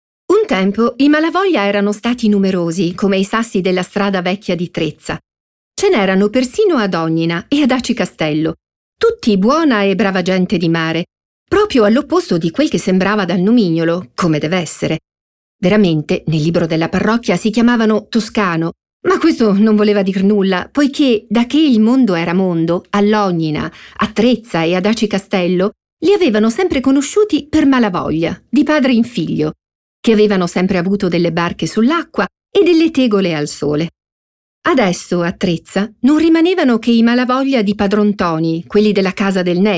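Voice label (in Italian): Descrittivo, voci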